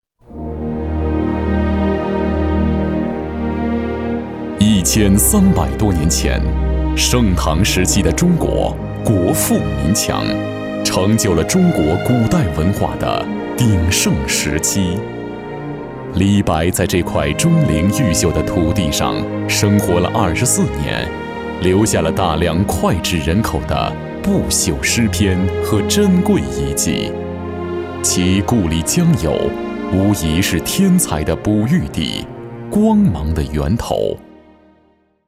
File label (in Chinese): 国语男 恢弘历史类; 江油